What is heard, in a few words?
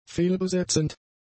"fehlbesetzend", german; adjectives